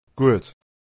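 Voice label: "gweT", Proto-Indo-European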